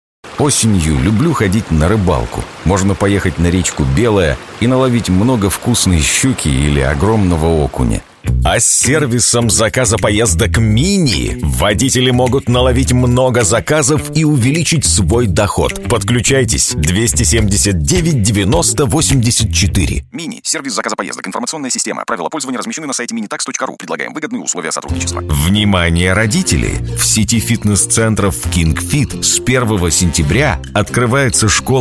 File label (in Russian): muzhskie golosa, diktory